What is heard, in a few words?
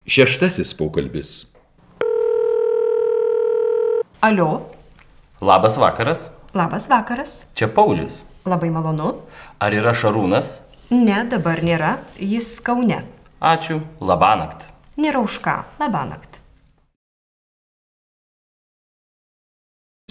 Dialog